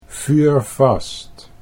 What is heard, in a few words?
"vuurvast", Dutch